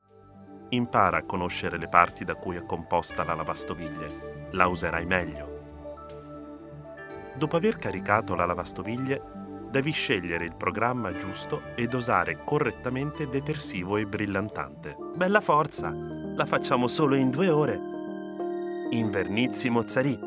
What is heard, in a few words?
Voice Over montage